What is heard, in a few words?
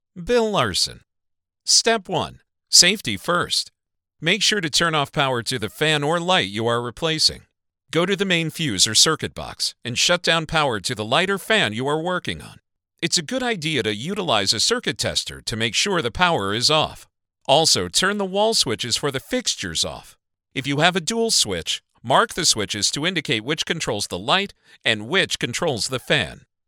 e-learning; Academic